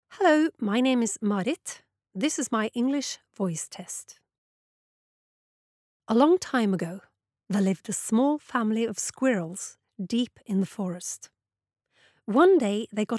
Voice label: engelsk, norsk, kvinne

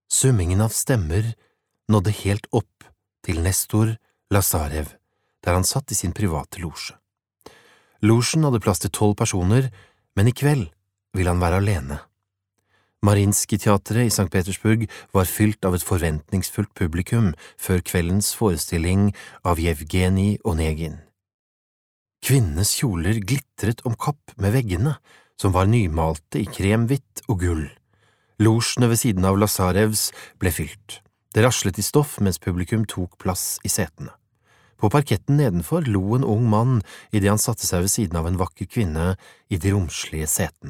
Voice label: no lydbok

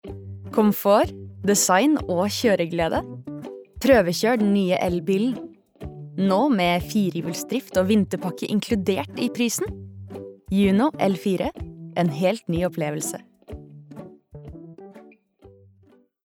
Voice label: no reklame, norwegian, female

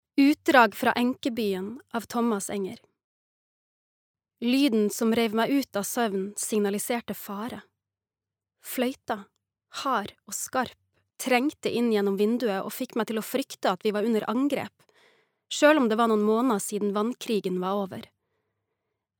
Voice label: lydbok, norsk, kvinne

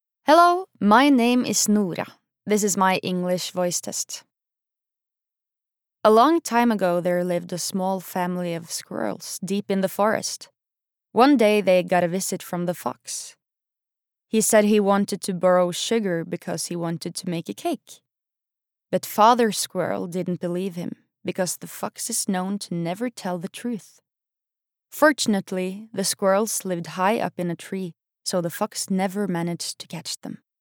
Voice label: no engelsk, Norwegian; female

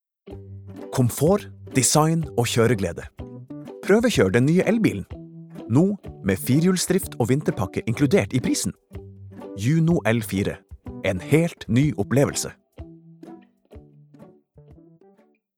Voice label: no reklame

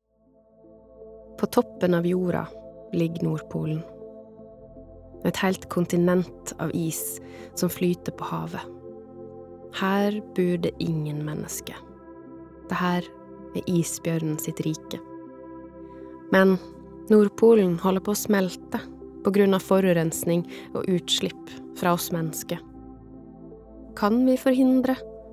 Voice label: no dokumentar, norwegian, female

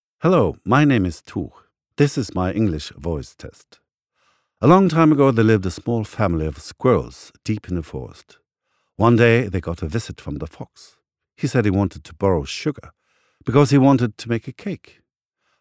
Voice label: no engelsk

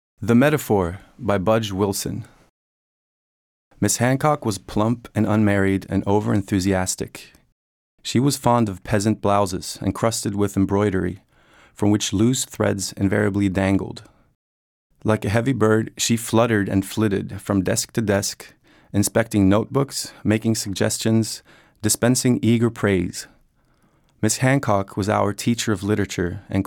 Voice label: amerikansk, Norwegian; male

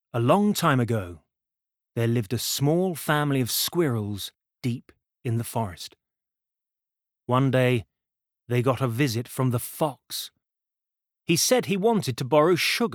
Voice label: uk barnebok

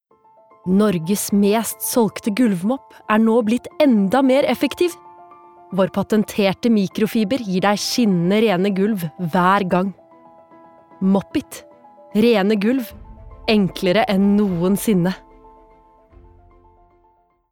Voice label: no reklame; norwegian, female

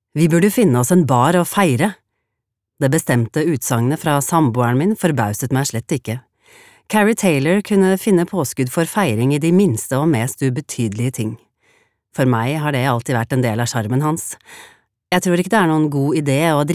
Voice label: no lydbok; kvinne

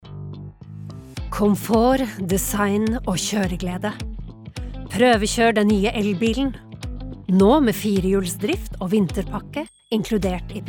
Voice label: no reklame, norsk, kvinne

